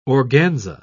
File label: "organza", pronounce